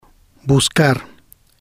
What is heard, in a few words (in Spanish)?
"Buscar", spanish